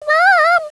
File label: run away, voice commands; svencoop; Miku